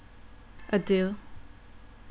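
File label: "adieu", Thai, female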